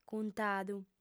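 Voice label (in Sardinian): "cuntadu", female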